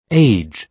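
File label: "age", British English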